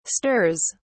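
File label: "stirs", English, British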